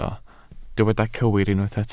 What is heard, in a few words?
"cywir", Welsh